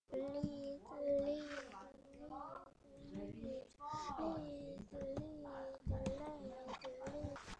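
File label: "lead", spoken word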